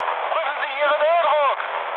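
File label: German damaged oil mood med, speech; War Thunder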